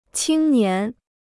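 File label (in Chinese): "青年" Mandarin Chinese; qīng nián; youth; youthful years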